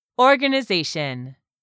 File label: "organization", English; 3000 words